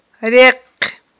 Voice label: "рекъ", Aghul

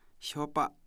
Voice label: "cow leather", Kham

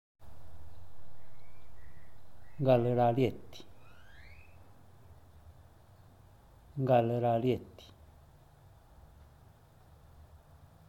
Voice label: "gal raaliyeti", zapoteco teotitlan; abortar

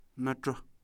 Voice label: "ear", Kham

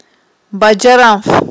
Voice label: "баджаранф", Aghul

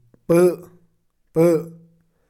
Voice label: Tibetan person; kham

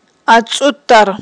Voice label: "ацIуттар", Aghul

